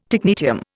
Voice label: "technetium", Indian

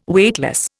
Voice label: "Weightless", Indian

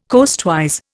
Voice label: "coastwise", Indian

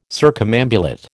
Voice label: "circumambulate", American

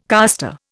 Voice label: "caster", Indian

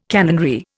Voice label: "canonry", Indian

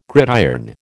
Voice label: "gridiron", American

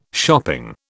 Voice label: "shopping", British

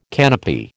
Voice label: "canopy", American

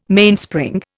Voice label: "Mainspring", Indian

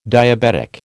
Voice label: "diabetic", American

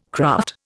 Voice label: "craft", Indian

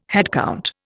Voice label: "headcount", Indian

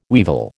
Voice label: "weevil", American

